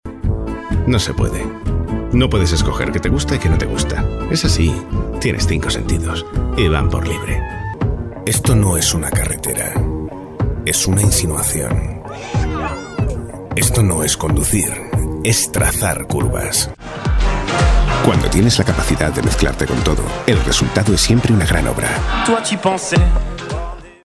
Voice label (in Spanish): Masculino